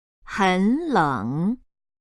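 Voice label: "hen3 leng3", Chinese, female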